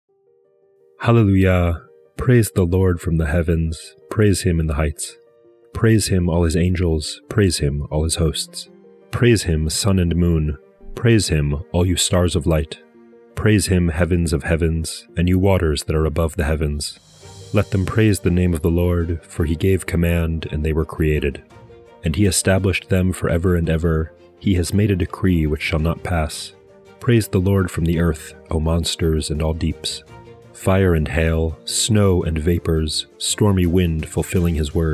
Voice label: poetry and prayer psalm english